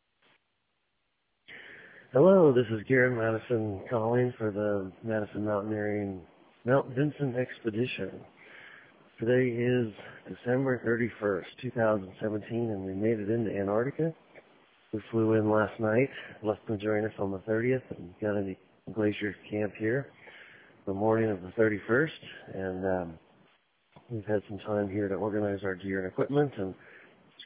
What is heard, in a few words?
voicemail